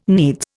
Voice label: "needs", Indian